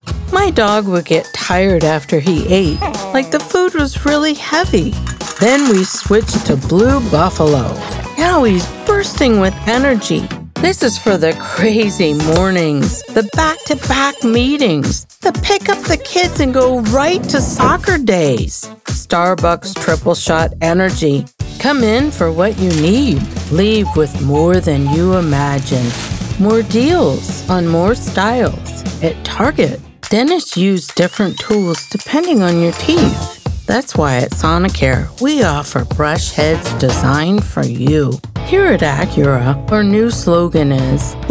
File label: Commercial, Voice Over